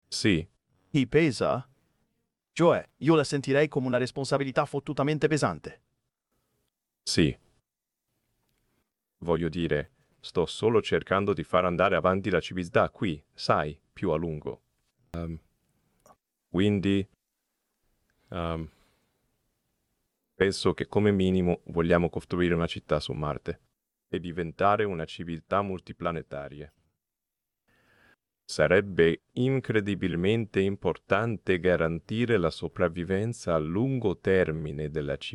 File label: italian; Subformer